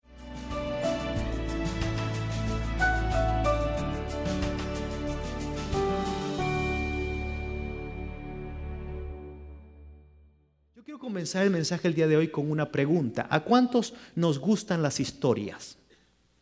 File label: Spanish Sermon